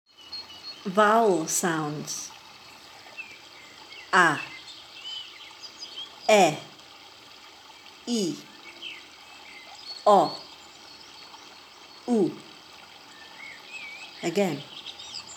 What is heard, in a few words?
Vowel sounds, portuguese